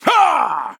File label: Male Chess Piece Attack